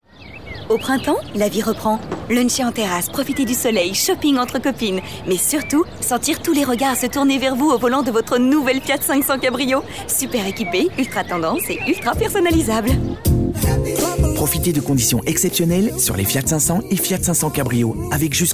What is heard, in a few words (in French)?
Voix F